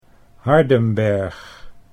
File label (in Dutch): "Hardenberg", Dutch